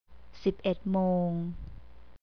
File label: "sipedmong", Thai; สิบเอ็ดนาฬิกา สิบเอ็ดโมง; 11 a.m.